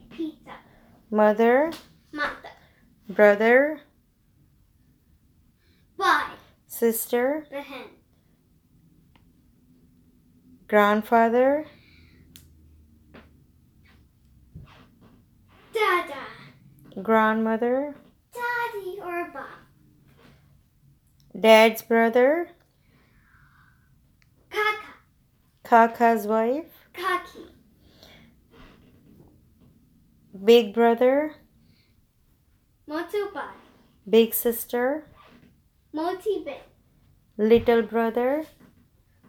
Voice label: Family, Happy New Year and Fireworks in Gujarati Spoken